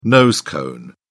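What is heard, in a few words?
"nose cone", British English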